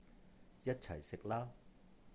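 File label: "yāt-chàih-sihk lā", Cantonese; let's eat together; 一齊食啦; jat1cai4sik6laa1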